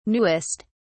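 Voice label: "newest", British English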